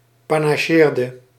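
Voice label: "panacheerde", Dutch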